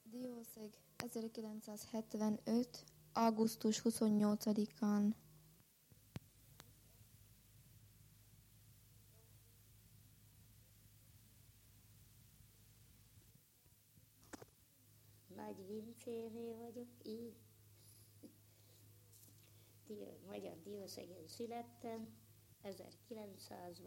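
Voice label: dialect collection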